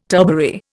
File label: "turbary", Indian